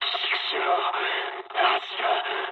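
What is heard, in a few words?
Japanese damaged pilot mood low; War Thunder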